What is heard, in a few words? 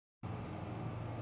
voice message